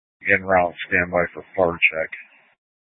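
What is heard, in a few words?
Incident Tac 2, Scanner audio recording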